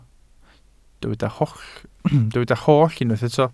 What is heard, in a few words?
"holl", Welsh